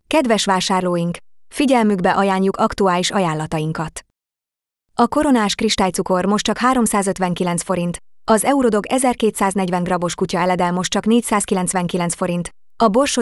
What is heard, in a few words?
Radio